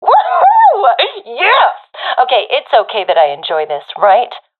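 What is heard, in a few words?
round winning, professional female; Source Model Packs; Counter Strike Model Pack; CS2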